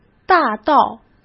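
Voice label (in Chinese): "大道", Mandarin Chinese; hsk; chinese flashcards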